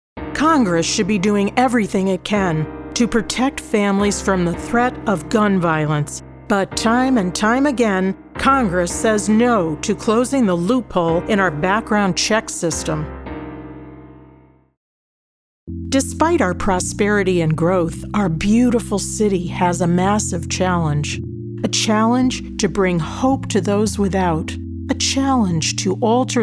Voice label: Political Spots

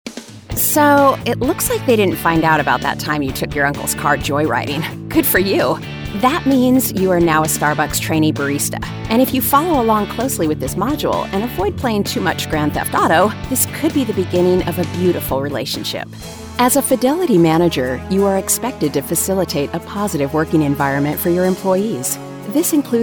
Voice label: ELearning

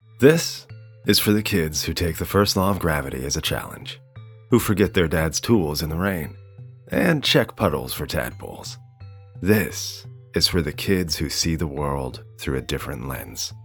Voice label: Commercial VO

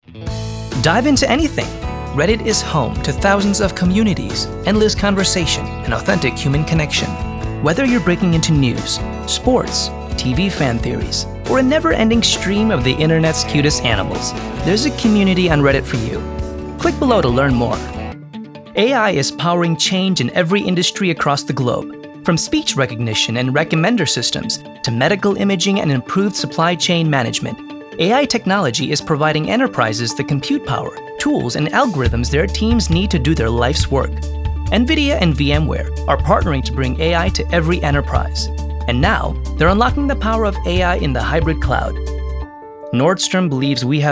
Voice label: VO Corporate

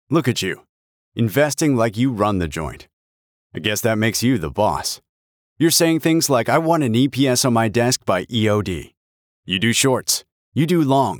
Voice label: Investing Ad

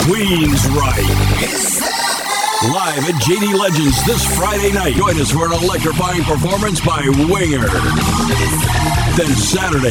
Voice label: Rock Concert

